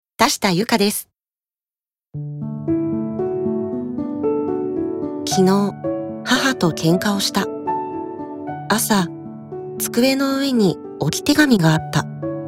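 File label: commercial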